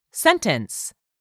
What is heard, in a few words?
"SENTENCE", english; 3000 words